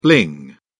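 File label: "bling", British English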